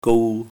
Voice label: "gou1", cantonese